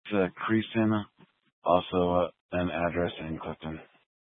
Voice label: Sheriff Dispatch; Mesa County